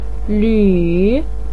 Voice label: "luu3", Chinese pinyin voice

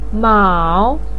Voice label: "mao3", Chinese pinyin voice

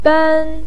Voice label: "ben1", Chinese pinyin voice

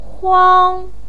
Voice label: "huang1", Chinese pinyin voice

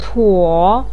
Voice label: "cuo3", Chinese pinyin voice

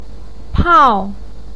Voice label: "pao4", Chinese pinyin voice

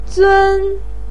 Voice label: "zūn", voice pinyin

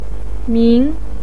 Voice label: "min2", Chinese pinyin voice

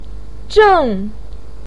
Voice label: "zhèng", voice pinyin

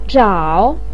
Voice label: "zhǎo", voice pinyin

